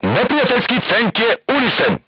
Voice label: voice message target destroyed, crew tanks serbian